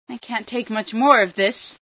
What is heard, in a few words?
cant take more, female